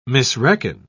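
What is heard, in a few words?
"misreckon", British English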